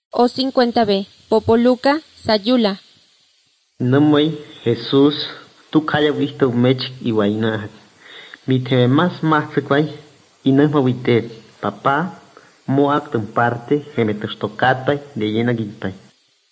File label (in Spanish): spanish mexico